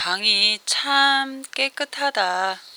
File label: Korean Sincere